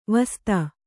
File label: "ವಸ್ತ", kannada